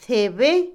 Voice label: joya, Otomí, mujer; Ropa; INALI

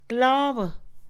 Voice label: clavo, Mixe, Mujer; Acciones; INALI

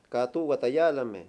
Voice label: "culpable", Mayo

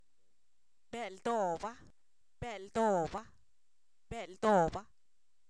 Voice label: gusano de maguey, Zapoteco, san miguel albarradas; animales; INALI

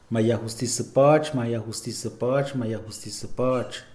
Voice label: "tribunal", Mixe, hombre

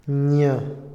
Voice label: "voz", Otomí, hombre; Habla; INALI

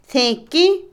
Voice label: Otomí, mujer; Acciones; serrucho, sierra; INALI

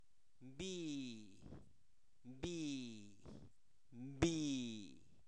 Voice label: aire, Zapoteco, santo domingo albarradas; fenomenos naturales

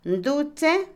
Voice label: fiebre, Otomí, mujer; Cuerpo; INALI

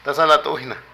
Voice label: Compañero, Zapoteco de Petapa; Vocabulary; Políticas

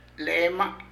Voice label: Él, ella animal, Zapoteco de Petapa; Parentesco; Vocabulary

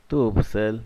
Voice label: "uno solo", Zapoteco, Magdalena Ocotlan; Números; INALI; Haspelmath

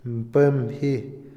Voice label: chimenea, Otomí, hombre; INALI

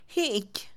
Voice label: Mixe, Mujer; tabaco; INALI

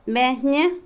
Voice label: mujer, Otomí; Parentesco; Vocabulary; INALI

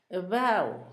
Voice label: neblina, Zapoteco, Asunción Ocotlan; Fenómenos; INALI; Haspelmath

